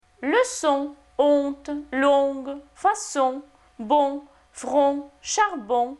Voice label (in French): leçon — honte — longue — façon — bon — front — charbon, francais Phonetique Voyelles; Lingvo